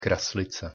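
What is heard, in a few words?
"kraslice", Czech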